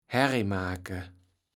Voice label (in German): "Herrijmaake", Platt